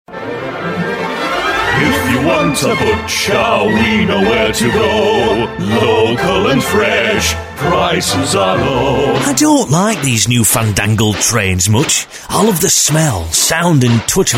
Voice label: male english multiple accents compilation; Devine Voices